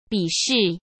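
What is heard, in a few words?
"比试", Mandarin Chinese; hsk; chinese-flashcards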